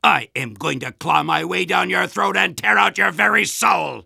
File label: Soldier taunts; "I am going to claw my way down your throat and tear out your very soul!"